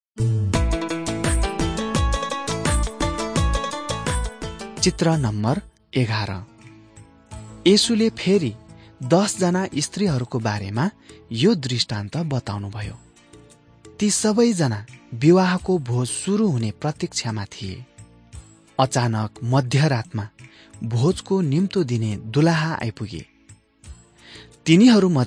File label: jesus teacher and healer nepali